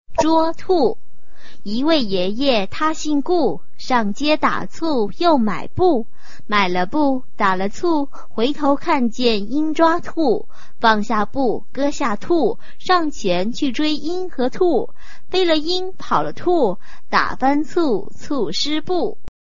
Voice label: chinese tongue twister